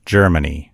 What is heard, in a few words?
"germany", en_US; gcompris; geography